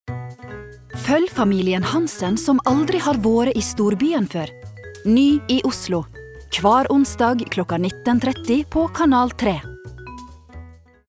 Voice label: no promo nordfjordsk nynorsk

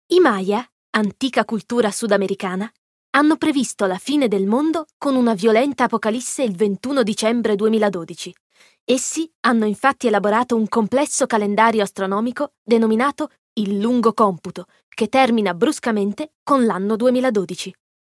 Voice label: it documentary

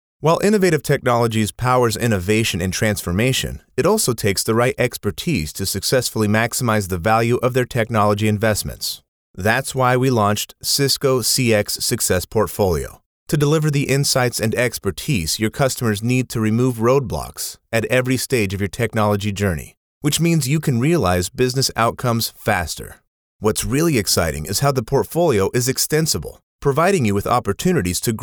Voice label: US elearning

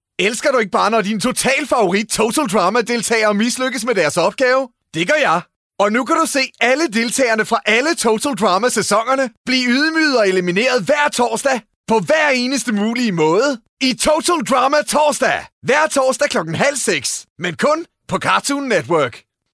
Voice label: promo, dk

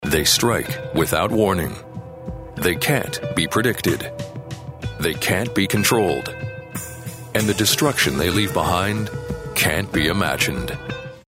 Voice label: us narration

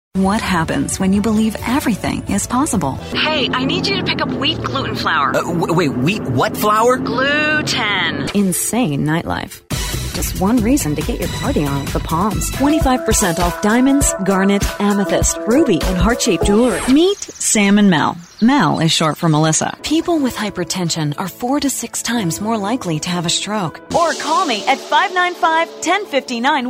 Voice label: US commercial; engelsk-us; kvinne

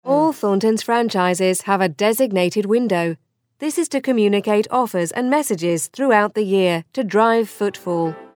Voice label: UK elearning; female

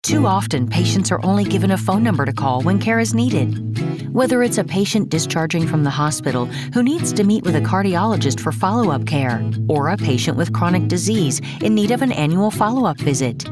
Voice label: us medical

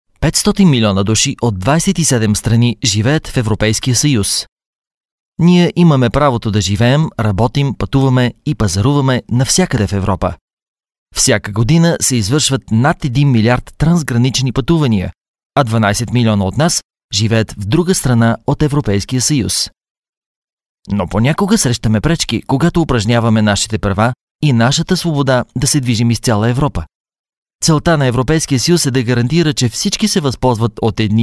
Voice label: bulgarian narration

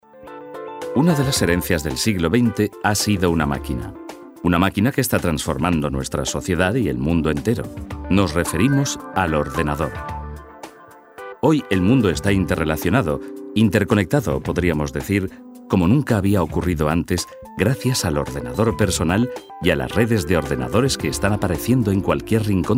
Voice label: es corporate

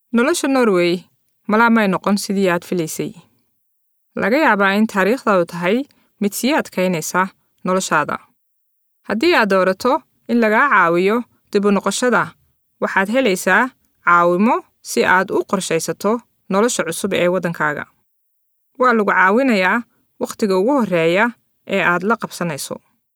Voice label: somali

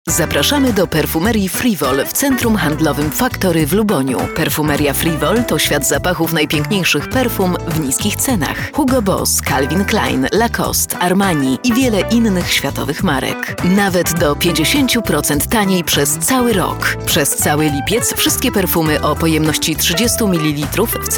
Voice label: pl commercial

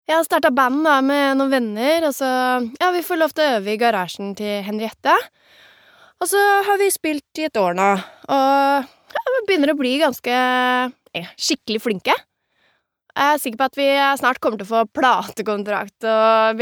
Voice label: dub girl, norwegian female

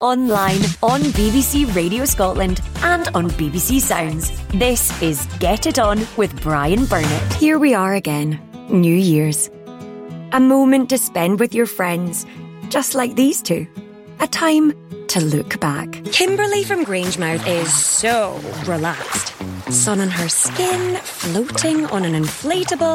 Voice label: gb scottish commercials

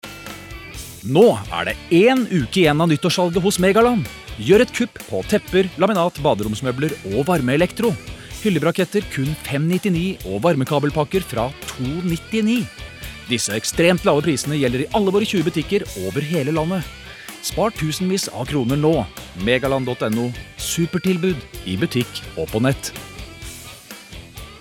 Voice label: no hard sell

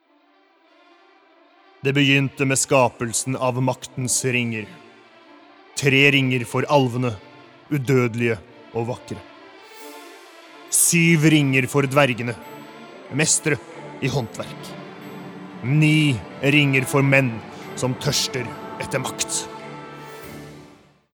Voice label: no movie trailer

